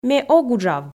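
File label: Komi, female; ме ог уджав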